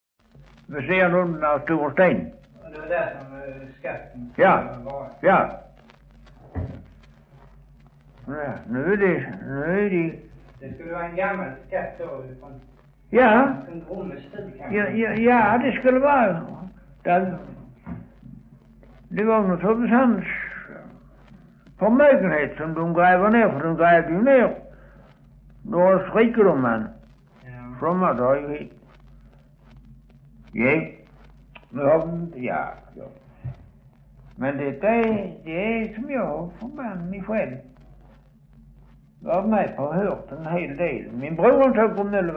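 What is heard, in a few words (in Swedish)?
Östra Ingelstad, Swedish dialect; Folkminnen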